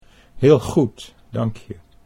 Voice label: "heel goed dank je", Dutch